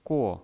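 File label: "guo", Mandarin Chinese, fourth tone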